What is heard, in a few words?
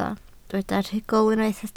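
"rhugl" Welsh, spoken word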